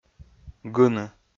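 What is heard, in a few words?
"гыны", Komi, male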